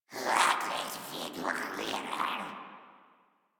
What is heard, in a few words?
en, Dialog Barks Battle; Patrol; Warframe; Grineer Wolf Gangster